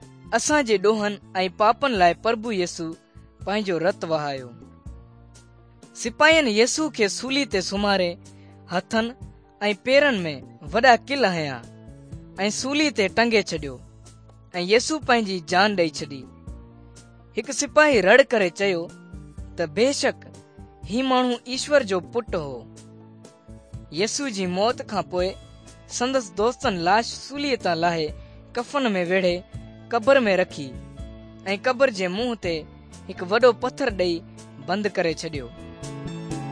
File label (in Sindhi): good news sindhi dukslinu, drama; bible; pakistan; sindhi pakistan nt drama